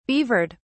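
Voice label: "beavered", British English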